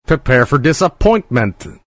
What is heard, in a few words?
disappointment